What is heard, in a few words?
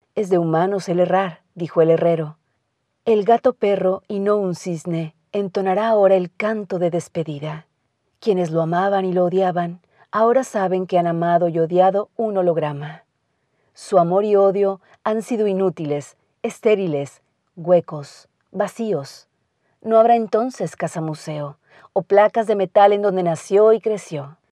locutora mexicana mexican voiceover; Mexican female voice over